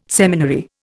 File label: "seminary", Indian